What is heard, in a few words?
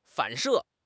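"反射", Mandarin Chinese; HSK; HSK6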